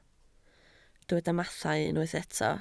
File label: "mathau", Welsh